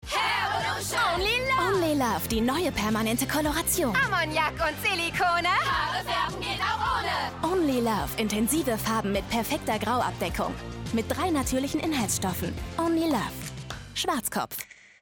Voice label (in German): werbung tv; only love schwarzkopf